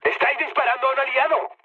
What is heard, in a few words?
player friendly fire, mood med, speech